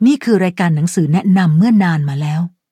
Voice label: level up, th; Cookie Run